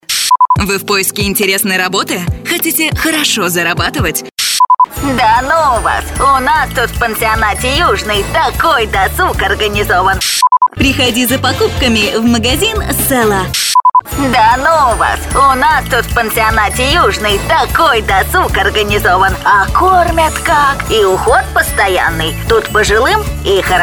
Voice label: russian voice over female commercial

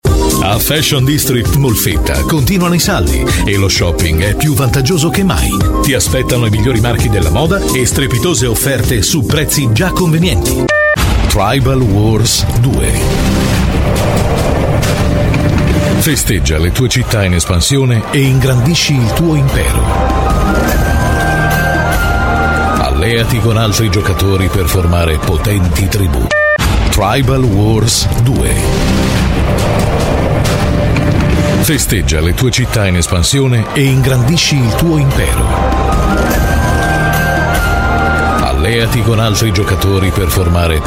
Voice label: italian voice over male commercial

